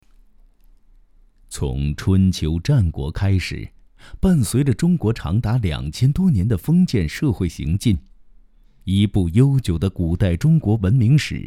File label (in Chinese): 男,纪录片; 大漠边关